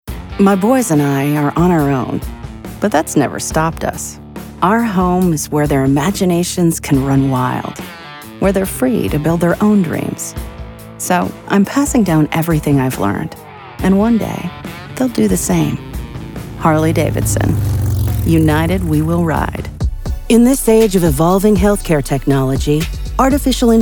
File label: Corporate Narration